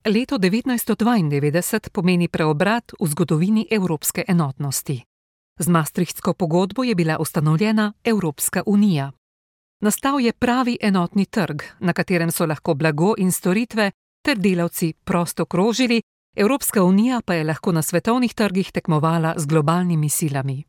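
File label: Slovenian, news; GoLocalise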